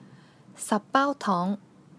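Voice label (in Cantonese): "baau1 tong2", Cantonese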